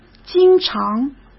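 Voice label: "经常", Mandarin Chinese; hsk; chinese-flashcards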